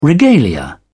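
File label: "regalia", British English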